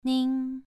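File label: "nin", tone 2, pronunciation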